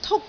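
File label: "tuk1", Cantonese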